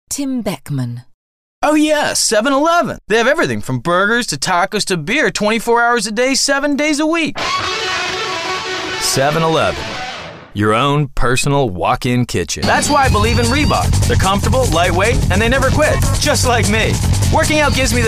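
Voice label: commercials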